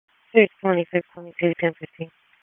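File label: Dispatch 1, P25; wake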